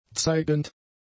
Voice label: "zeigend", German; adjectives